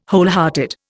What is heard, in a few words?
"wholehearted", Indian